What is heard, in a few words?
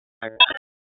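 Police Northeast District Dispatch, Raleigh; wake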